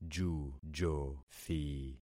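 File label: "jujothi", concatenated syllables; Affixing experiment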